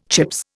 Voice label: "Chips", Indian

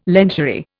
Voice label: "lingerie", Indian

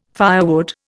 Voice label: "firewood", Indian

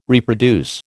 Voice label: "reproduce", American

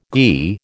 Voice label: "ghee", American

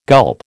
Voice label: "gulp", American

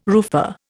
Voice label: "roofer", Indian

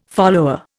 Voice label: "follower", Indian

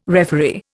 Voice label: "referee", Indian

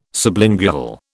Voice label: "sublingual", British

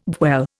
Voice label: "dwell", Indian

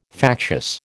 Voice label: "factious", American

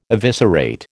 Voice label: "eviscerate", American

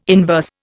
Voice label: "inverse", Indian

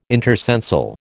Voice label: "intercensal", American

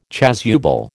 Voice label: "chasuble", American

